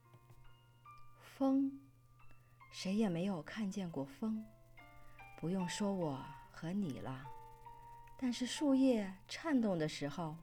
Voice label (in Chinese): 美文风